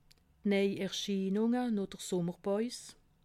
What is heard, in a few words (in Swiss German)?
"neierschienung", alsace